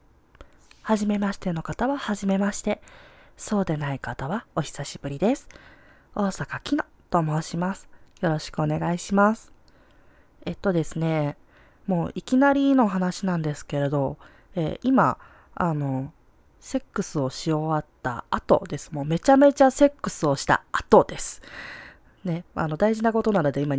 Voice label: トーク

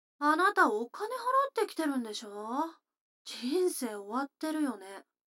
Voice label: 女の子台詞, ASMR

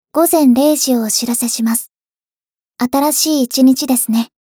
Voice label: 時報ボイス 電脳少女; ボイスコレクション

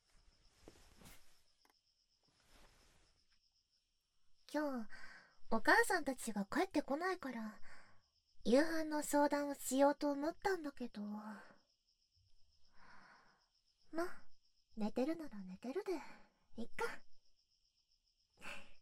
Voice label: 日本語版前半 右耳耳かき, ASMR

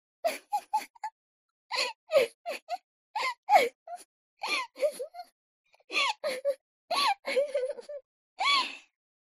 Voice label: mob 女子 台詞

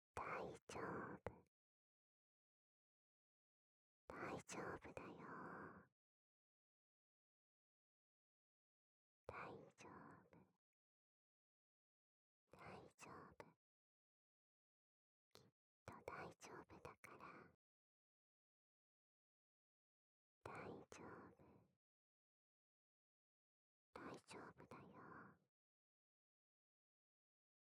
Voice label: 囁き 右耳 大丈夫だよ

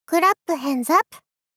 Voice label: クラップハンズアップ 少女×中音域

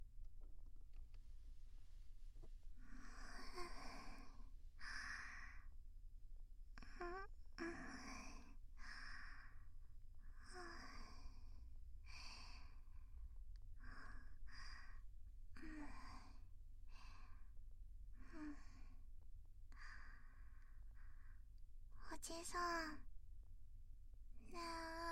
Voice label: 夜【添い寝】

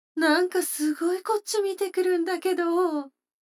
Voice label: 女の子台詞

